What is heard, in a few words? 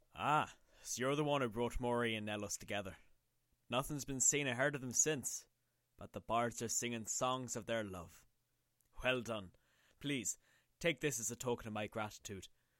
breton audition, breton voice auditions